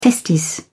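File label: "testis", English, British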